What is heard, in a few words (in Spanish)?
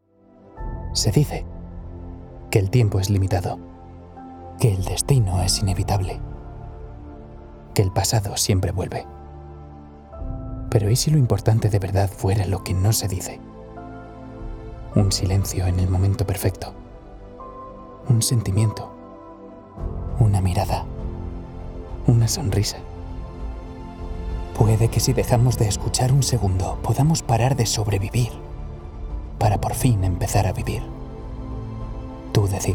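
otros España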